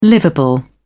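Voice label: "livable", British English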